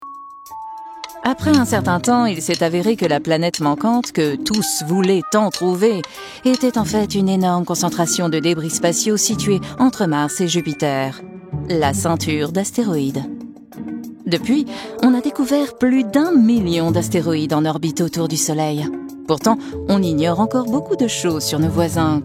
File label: french documentaries